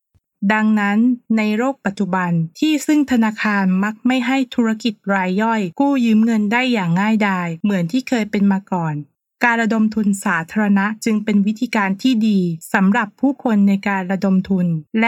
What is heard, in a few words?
female thai